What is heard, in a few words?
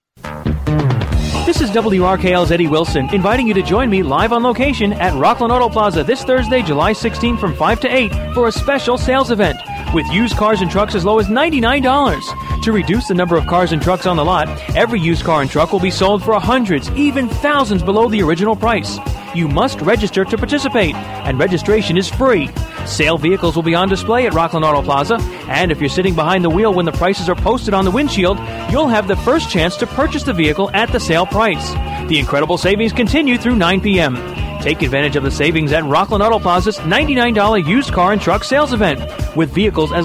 REMOTE PROMO; WRKL ROCKLAND AUTO PLAZA